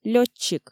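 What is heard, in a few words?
"лётчик", Komi, female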